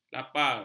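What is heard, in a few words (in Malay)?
"lapar", Malay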